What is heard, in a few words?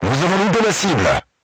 voice message armor not pierce, crew tanks french